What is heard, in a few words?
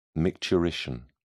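"micturition", British English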